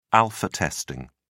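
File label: "alpha testing", British English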